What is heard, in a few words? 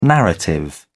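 "narrative", British English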